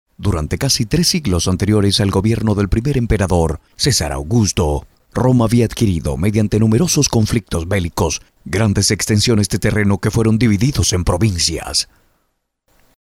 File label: locutor venezuela venezuelan voiceover